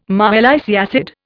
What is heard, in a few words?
"malic acid", Indian